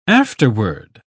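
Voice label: "afterword", British English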